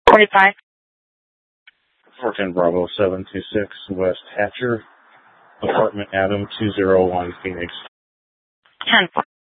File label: Sheriff, scanner; Maricopa County (P25) - Thompson Peak; Shrf Northeast